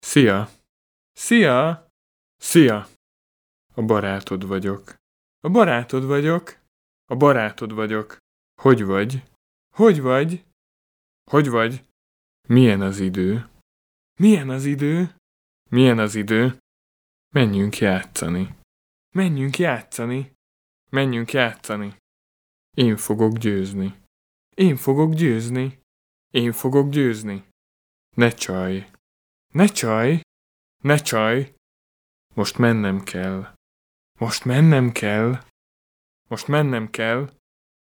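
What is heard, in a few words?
hu man, Hungarian; 29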